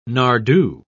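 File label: "nardoo", British English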